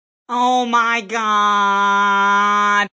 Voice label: Oh My God, Male; Exclamation Sound Effects